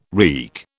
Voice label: "reek", British